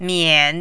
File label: "mian3", mandarin